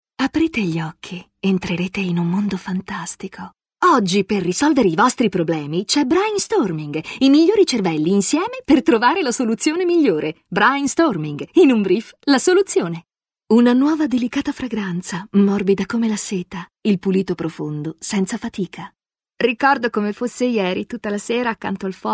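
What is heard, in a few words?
F, Italian, female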